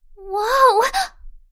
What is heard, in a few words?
woah cute anime voice magical mystic